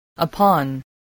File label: "upon", English